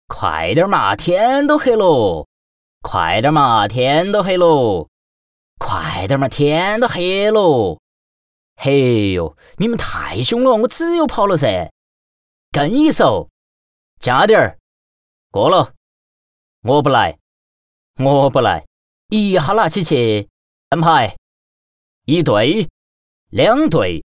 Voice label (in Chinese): 男, 方言, 四川话; 德州扑克